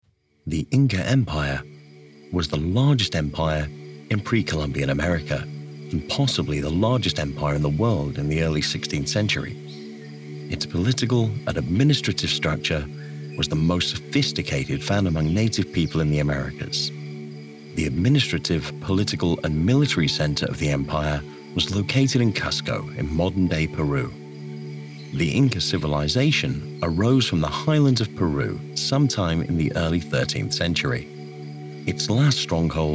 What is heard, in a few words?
TV narration